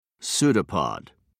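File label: "pseudopod", British English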